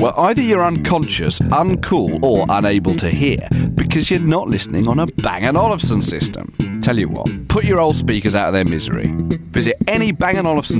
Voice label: Bass